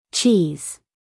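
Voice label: "cheese", American English, female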